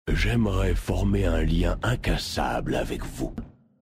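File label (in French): French Male Blague; LienIncassable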